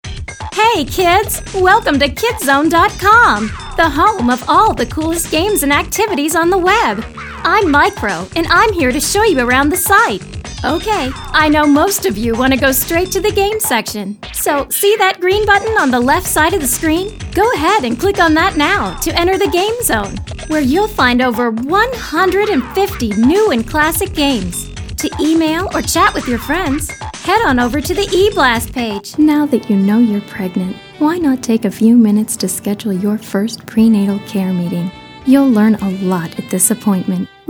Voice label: Narrative